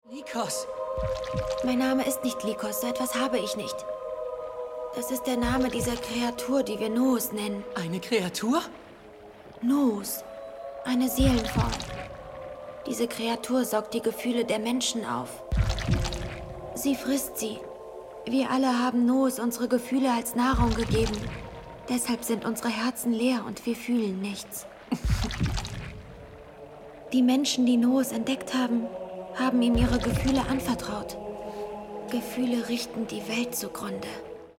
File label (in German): Anime; Walkinder